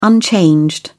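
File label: "unchanged", British English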